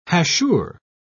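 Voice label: "hachure", British English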